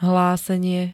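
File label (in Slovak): "hlásenie", Slovak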